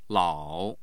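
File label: "lao", Mandarin Chinese, tone 3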